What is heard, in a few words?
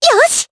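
Vox Happy Japanese; Dosarta; kingsraid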